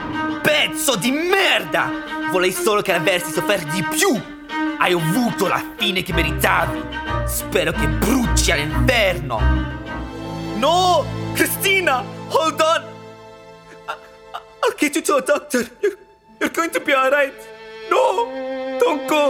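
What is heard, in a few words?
French, Accented Italian; voice demos; GoLocalise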